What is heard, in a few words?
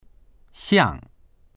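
"xiang4", Mandarin Chinese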